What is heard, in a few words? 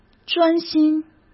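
"专心", Mandarin Chinese; hsk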